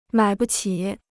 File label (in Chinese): "买不起", Chinese